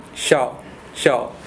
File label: xiao male